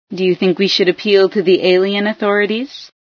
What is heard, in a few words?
alien appeal, female sci